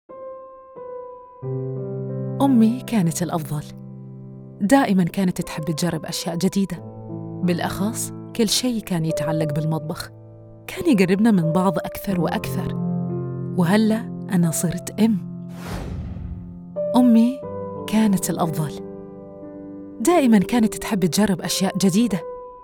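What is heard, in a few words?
Khaliji Mom, Accents and Dialects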